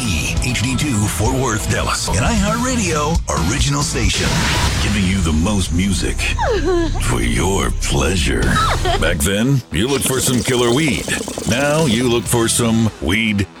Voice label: Imaging Rock Male